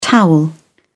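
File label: "towel", British English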